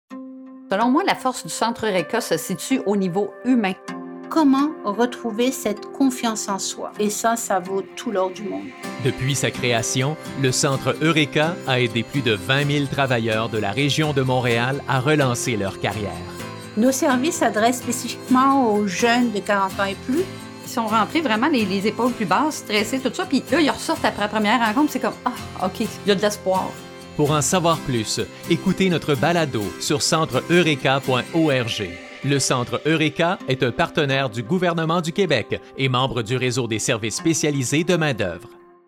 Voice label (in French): Podcast trailer